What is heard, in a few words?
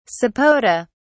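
"sapota", British English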